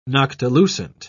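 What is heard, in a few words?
"noctilucent", British English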